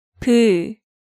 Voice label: "Beu", Korean

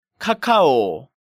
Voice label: "Cacao", Korean

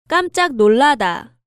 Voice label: "Kkamjjak nollada", Korean

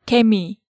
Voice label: "Gaemi", Korean

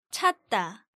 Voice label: "chatda", Korean

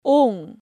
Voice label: "Ong", Korean

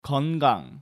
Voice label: "Geongang", Korean

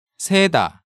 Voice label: "seda", Korean

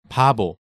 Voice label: "Babo", Korean

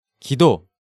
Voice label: "Gido", Korean

